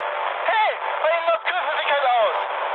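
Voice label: German damaged water mood high, speech; War Thunder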